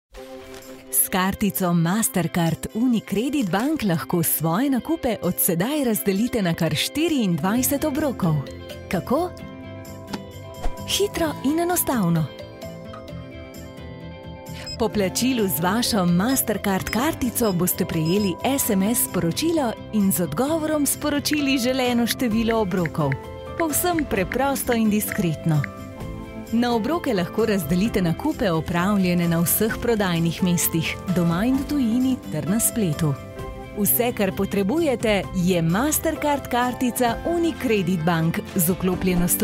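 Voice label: Slovenian, narrational; GoLocalise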